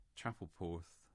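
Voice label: "Chapel Porth", English; place names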